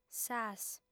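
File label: "sas", female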